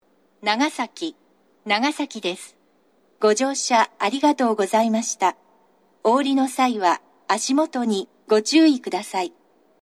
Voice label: 到着放送 女声, 長崎 西九州新幹線ホーム 自動放送

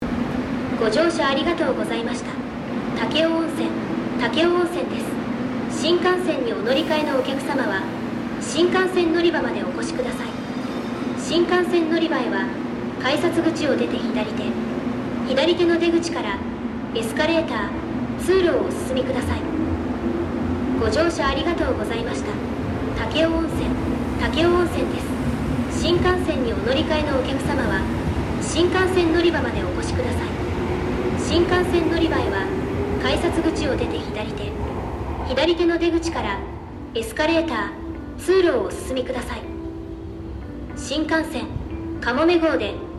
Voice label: 到着放送（合成音声案内）※雑音多数につき音量注意; takeo onsen

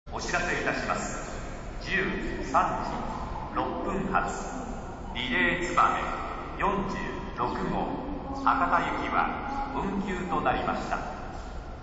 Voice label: 新八代駅 11番のりば運休放送（リレーつばめ46号 博多行き）; 九州新幹線SIRIUS（シリウス）放送

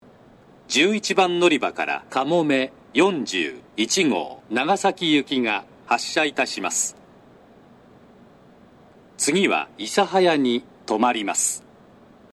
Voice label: 11番のりば発車放送; 西九州新幹線; かもめ41号 長崎行き; takeo onsen; 標準の放送内容です。

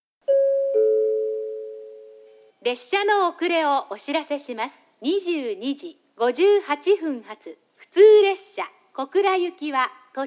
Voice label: 遅延放送（小倉行き）; 福工大前

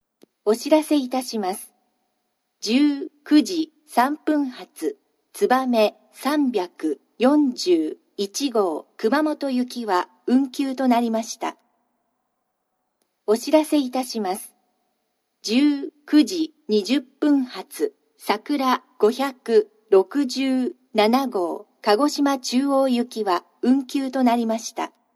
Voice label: 久留米駅 12番のりば運休放送（つばめ341号 熊本行き＋さくら567号 鹿児島中央行き）; 九州新幹線SIRIUS（シリウス）放送

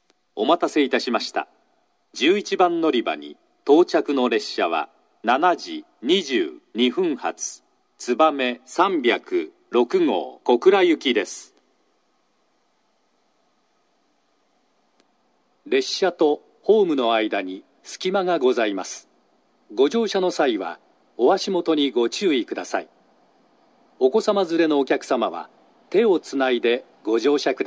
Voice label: 11番のりば接近放送; 新玉名; つばめ306号 小倉行き; 列車がホームに差し掛かったら放送が流れます。

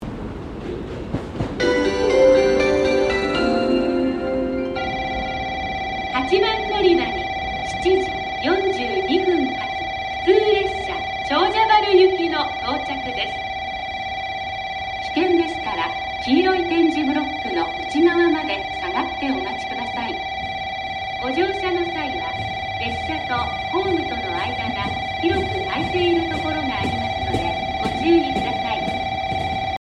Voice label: 博多8番 接近放送（普通 長者原行き）→留置線より入線の為、ベルが被ります。; 女声放送; 福北ゆたか線

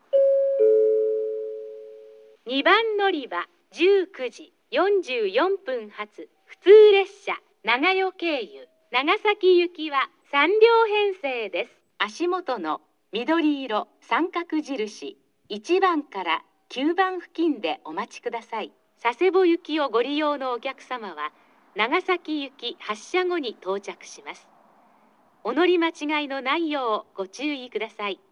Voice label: 2番のりば案内放送; 普通・長与経由 長崎行き; ハウステンボス; 乗車口 緑色△印1〜9

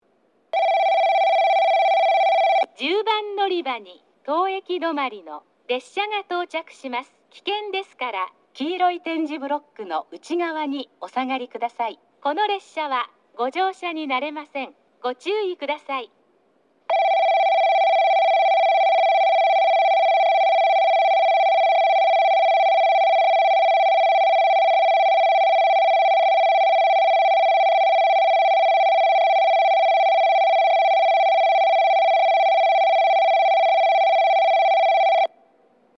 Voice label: 10番のりば接近放送（当駅止まり）

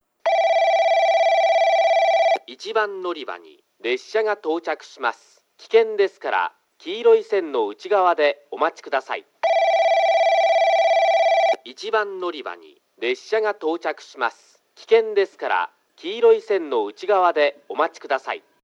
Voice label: 1番のりば接近放送 男声; 宇佐; 1 中津方面; スピーカーはJVCラインアレイとなっています。

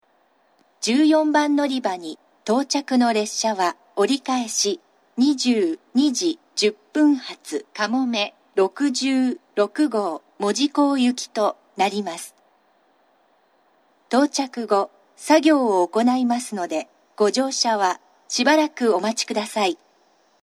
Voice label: 長崎 西九州新幹線ホーム 自動放送, 14番のりば接近放送, 折り返し放送; かもめ66号 門司港行き

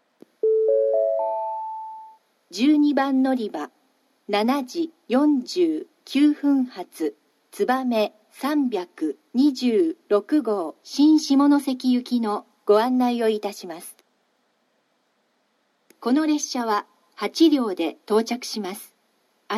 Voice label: 12番のりば案内放送, 新幹線ホーム; 新鳥栖; つばめ326号 新下関行き

